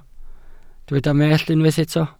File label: "mellt", welsh